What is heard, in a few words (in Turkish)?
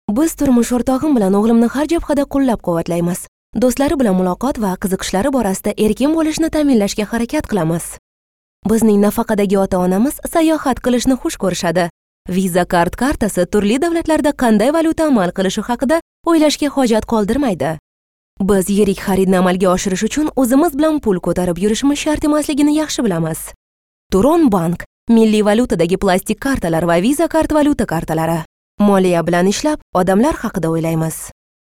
Ozbekce Kadin, Kadın Ses